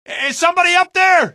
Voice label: help, male; Garry's Mod; hl2; Streetwar

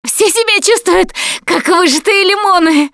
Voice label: going to die, russian; Producer

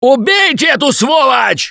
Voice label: grabbed by charger, russian, Survivor Voice; Gambler

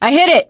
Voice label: bridge raise hit it, survivor voice; Left 4 Dead 2

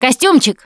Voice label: name gambler, russian, Survivor Voice Producer

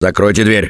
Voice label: Close The Door, russian; Gambler

